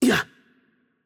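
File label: Hungarian hurt light voice; bloom minigame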